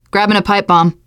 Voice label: take pipe bomb, teengirl, voice; player; survivor

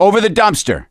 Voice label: misc directional, voice; gambler

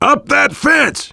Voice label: directional, voice, biker; Left 4 Dead 2; left4dead2 dlc3; survivor

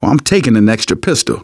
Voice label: take pistol, survivor voice; manager; Left 4 Dead 2; left4dead2 dlc2

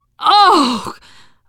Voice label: reaction disgusted, teengirl; player; survivor; voice

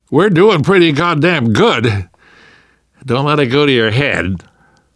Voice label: hurrah, survivor voice; namvet; Left 4 Dead 2; left4dead2 dlc2

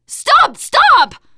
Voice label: team kill accident, teengirl; player; survivor; voice

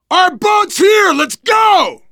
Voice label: arrive boat initial, voice, biker; Left 4 Dead 2; left4dead2 dlc3; survivor

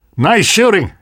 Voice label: nice shot, namvet, survivor voice; Left 4 Dead 2; left4dead2 dlc3

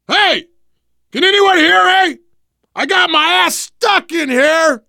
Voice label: call for rescue, survivor voice biker; Left 4 Dead 2; left4dead2 dlc3

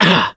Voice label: hurt minor, survivor voice mechanic

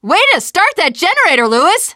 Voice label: bridge available, teengirl

